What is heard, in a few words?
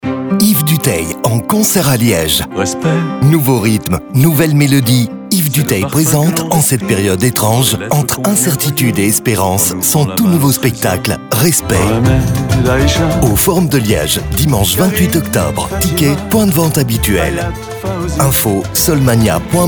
spot radio